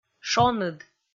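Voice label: "шоныд", Komi, female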